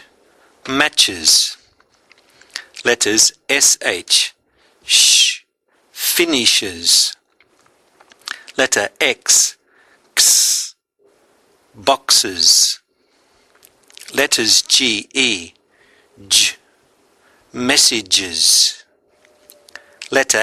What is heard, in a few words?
s Endings, Phonics; LA Meets Phonics